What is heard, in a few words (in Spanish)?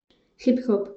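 "hip", Spanish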